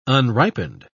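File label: "unripened", English, British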